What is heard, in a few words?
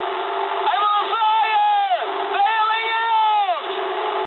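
English on fire mood high; War Thunder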